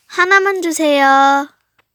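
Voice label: "하나만 주세요", Korean; 1つだけください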